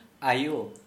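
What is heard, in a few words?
Santali, male, mother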